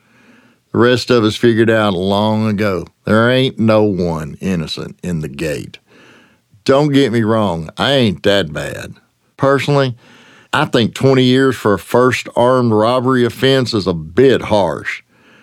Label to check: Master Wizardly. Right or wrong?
Wrong